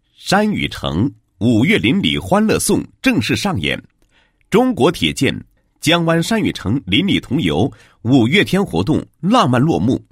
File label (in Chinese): 落幕词, 国语男声配音; 高音经济型; 中国铁建江湾山语城业主活动落幕词